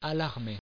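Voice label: "alarmer", French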